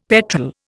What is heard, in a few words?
"petrel", Indian; Dictionary